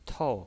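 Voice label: "tou", pinyin voice, fourth tone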